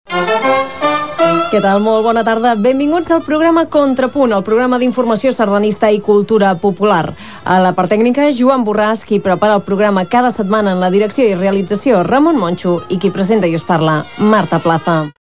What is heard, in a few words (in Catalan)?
Contrapunt Radio Terrassa; 1999